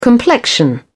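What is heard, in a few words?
"complexion", British English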